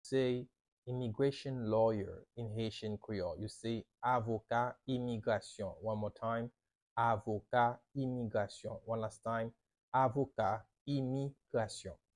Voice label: Avoka imigrasyon, Haitian Creole pronunciation by a native Haitian; Immigration lawyer in Haitian Creole